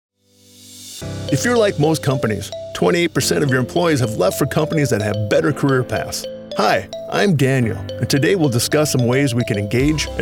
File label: Male Narration